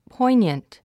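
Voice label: "poignant", English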